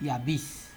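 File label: "yabis", Nigerian Pidgin, male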